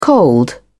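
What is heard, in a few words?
"cold", British English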